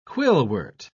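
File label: "quillwort", British English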